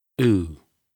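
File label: "tooth", English pronunciation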